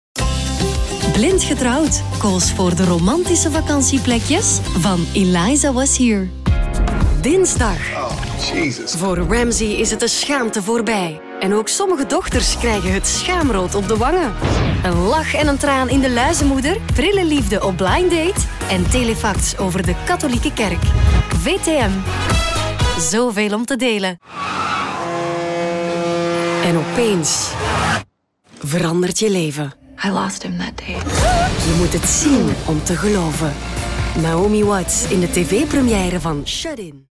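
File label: Flemish, female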